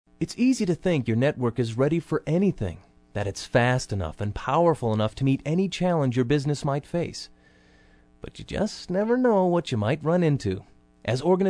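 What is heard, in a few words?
narration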